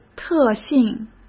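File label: "特性", Mandarin Chinese; chinese-flashcards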